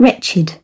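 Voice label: "wretched", British English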